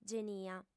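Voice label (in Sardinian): "genia", Sardinian, female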